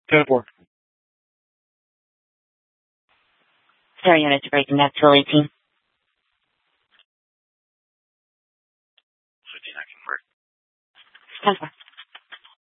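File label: police dispatch, Scottsdale PD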